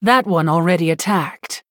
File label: female human error minion attacked